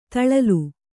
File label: "ದಲಾಲ", Kannada